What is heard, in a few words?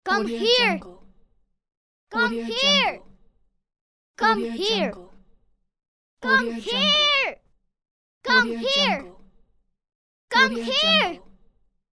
little boy voice come here